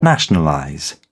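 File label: "nationalize", British English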